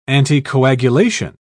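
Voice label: "anticoagulation", British English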